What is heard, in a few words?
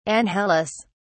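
"anhelous", British English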